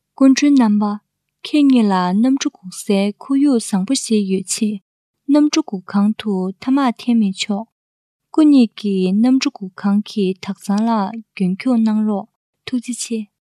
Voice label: female, Tibetan